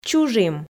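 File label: "чужим", Komi, female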